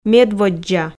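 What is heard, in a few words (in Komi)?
"медводдза", Komi, female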